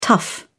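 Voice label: "tough", English, British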